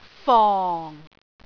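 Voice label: "fong4", Cantonese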